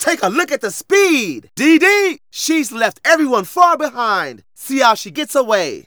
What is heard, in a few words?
Narration